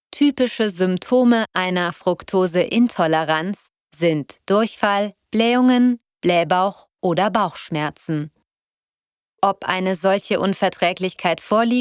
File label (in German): Sachbuch, Sprecherin